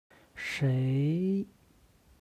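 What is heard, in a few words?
"shei", Chinese; 谁; 誰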